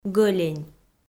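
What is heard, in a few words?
"гӧлень", Komi, female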